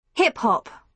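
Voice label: "hip hop", British English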